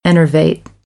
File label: "enervate", American English